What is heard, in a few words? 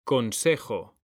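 "CONSEJO", Spanish; mekanta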